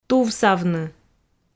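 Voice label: "тувсавны", Komi, female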